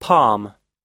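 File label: "palm", American English; palm /pɑ́:m; 手のひら、たなごころ、手のひら状のもの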